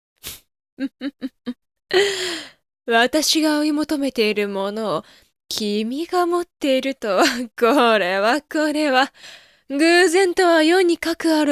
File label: lady